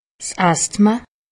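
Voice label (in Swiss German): "asthma", Haut Rhin; medecine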